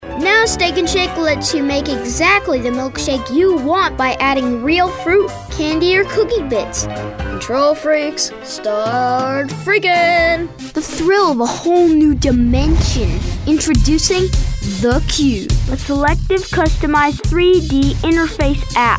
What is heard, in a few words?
kids commercial; Speaks very clearly, versatile, energetic, and funny.